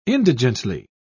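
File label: "indigently", British English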